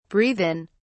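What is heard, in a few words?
"breathe in", British English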